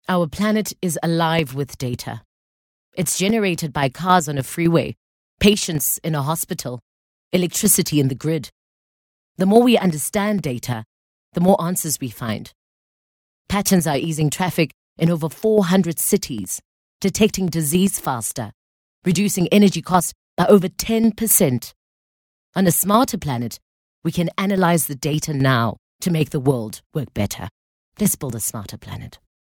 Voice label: English soft sell; OSM Talent